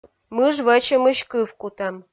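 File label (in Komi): "мыж вӧчӧмысь кывкутӧм", Komi, female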